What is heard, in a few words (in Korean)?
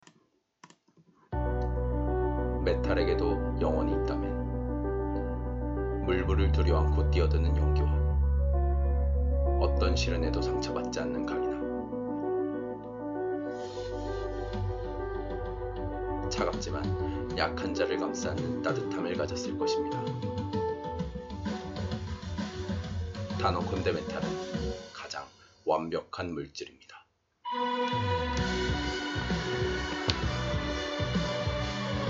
광고 성대모사